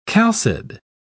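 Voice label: "chalcid", British English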